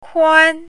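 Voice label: "kuan1", Mandarin Chinese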